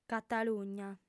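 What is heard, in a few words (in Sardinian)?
"catalugna", female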